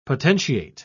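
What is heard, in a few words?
"potentiate", British English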